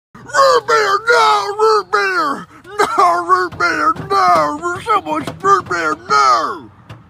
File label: root beer nooooo